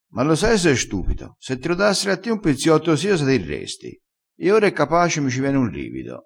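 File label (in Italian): "pizziotto", dialettale, crespinese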